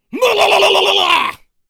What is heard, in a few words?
angry; Muhlalalalaluh!; Muscle turd